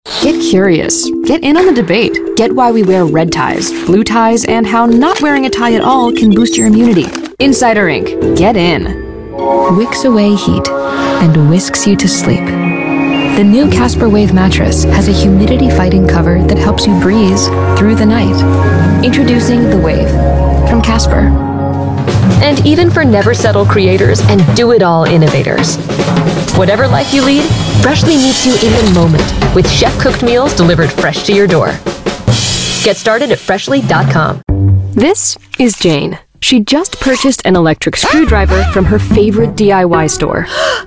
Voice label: ENG